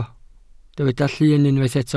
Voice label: "llyn", Welsh